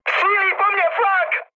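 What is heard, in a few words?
damaged aa, Czech, mood high; speech; War Thunder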